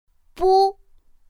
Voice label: "bū", pinyin; pinyin2; pinyinji